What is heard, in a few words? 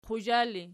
"quzha", Archi